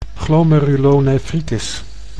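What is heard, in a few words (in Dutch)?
"glomerulonephritis", Dutch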